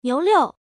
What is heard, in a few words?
female